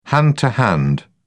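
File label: "hand to hand", British English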